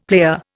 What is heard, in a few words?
"player", Indian; Dictionary